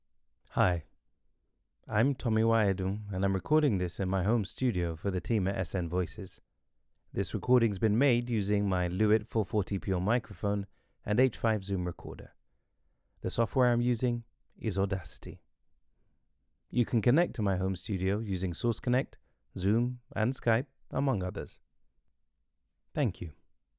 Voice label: Home Studio Test, gentlemen